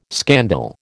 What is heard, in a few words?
"scandal", American